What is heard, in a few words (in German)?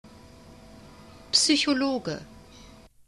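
"psychologe", German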